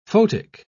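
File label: "photic", British English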